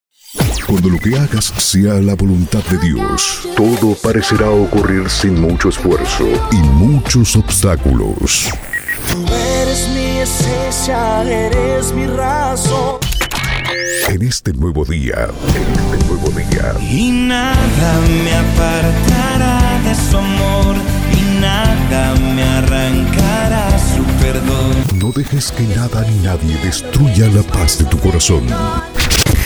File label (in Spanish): Pack Cristiano, Arte generico musical, Voz masculina; Para tu radio Cristiana